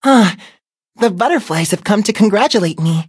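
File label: level up, English; cookie run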